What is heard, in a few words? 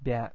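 "bya", Japanese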